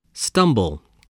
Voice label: "stumble", spelling